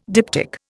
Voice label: "diptych", Indian